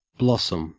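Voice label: "blossom", English word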